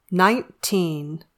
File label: "nineteen", English